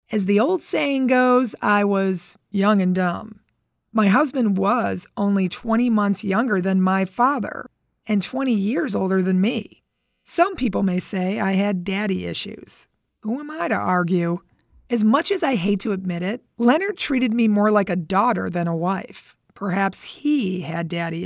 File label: english american narration